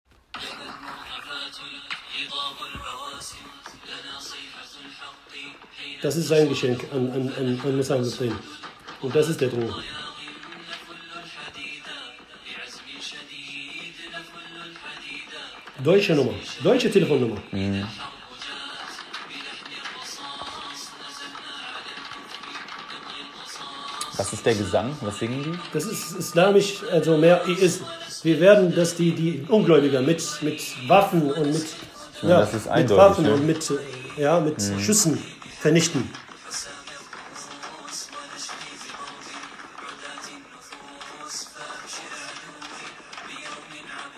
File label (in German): Tonaufnahme eines IS Bedrohungsvideos